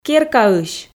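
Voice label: "керкаысь", Komi, female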